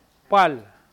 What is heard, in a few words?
"pal", Mokilese